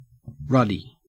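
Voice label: "ruddy", Australian English; pronunciations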